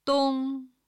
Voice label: "dong1", Chinese